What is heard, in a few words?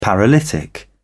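"paralytic", British English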